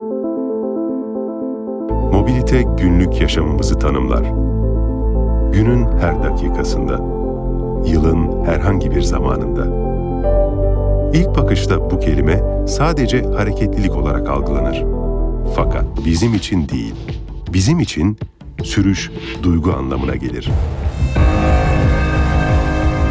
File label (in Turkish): tanitim, vokal tonu